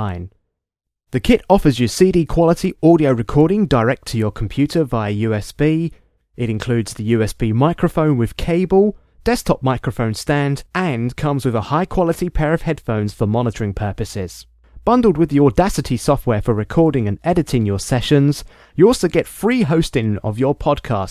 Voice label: sound test using the ion alesis podcasting kit

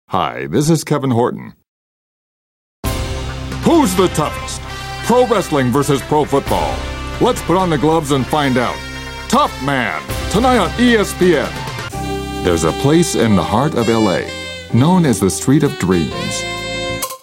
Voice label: voiceover

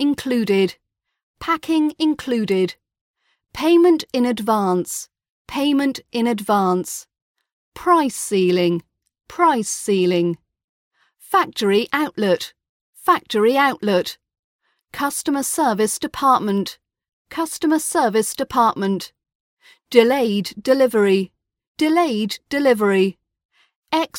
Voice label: rp british accent industry vocab sales

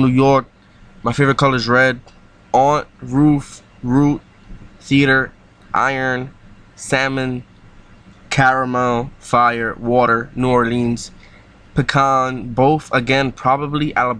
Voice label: accent challenge

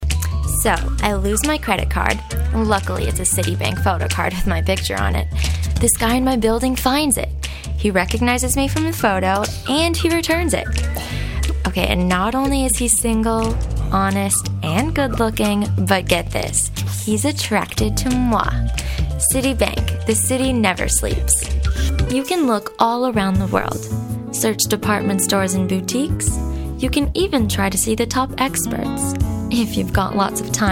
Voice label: commercial

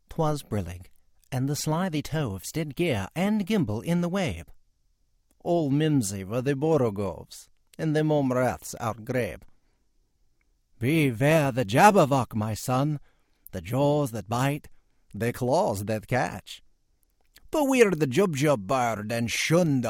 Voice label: jabberwocky in 14 character voices

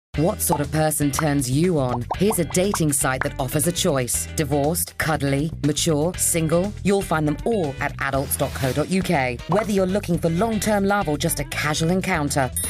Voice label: commercial; adults co uk

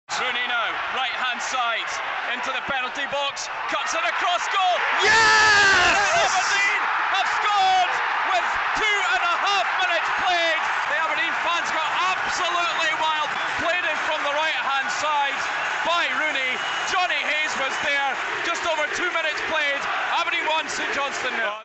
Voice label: tv commentary; rdeen 1 0 st johnstone; northsound 1

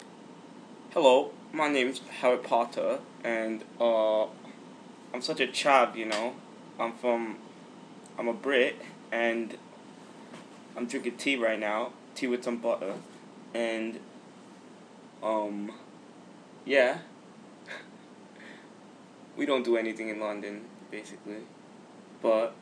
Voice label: uk accent

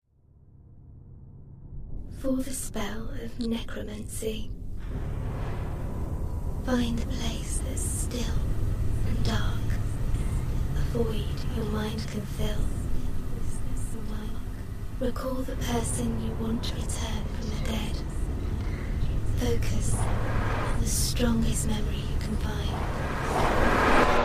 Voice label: necromantic voiceover

